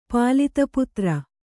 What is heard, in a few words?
"ಪಾಲಿತಪುತ್ರ", Kannada